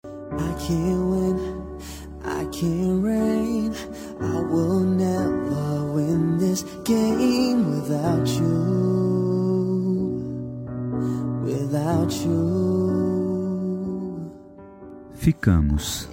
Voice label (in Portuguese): inicio masc, suave voz masculina; Dia dos Namorados